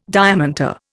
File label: "diamant", Indian